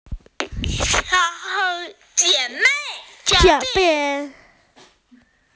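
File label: "sisters", Mandarin; jie3mei4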